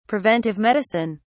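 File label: "preventive medicine", British English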